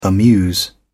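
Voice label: "amuse", British English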